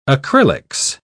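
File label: "acrylics", British English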